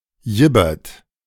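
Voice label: "jibbert", German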